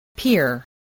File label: "pier", voice, English